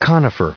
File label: conifer, en-us